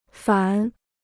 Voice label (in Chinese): "反", Chinese